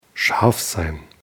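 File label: "scharf sein", German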